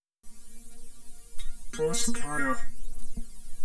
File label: computerized